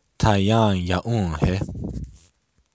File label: how are you, lakota; Taŋyáŋ yaúŋ he?; Lakota Sioux